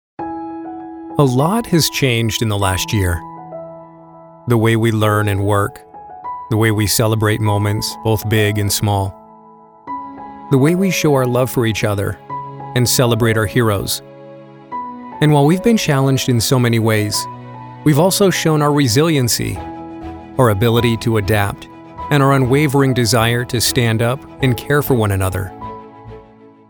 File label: Caring Voice Over